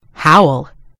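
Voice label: "howl", American English; gcompris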